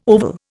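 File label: "oval", Indian English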